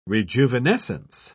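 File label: "rejuvenescence", British English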